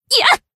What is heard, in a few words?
Battle Shout